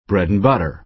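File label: "bread-and-butter", American English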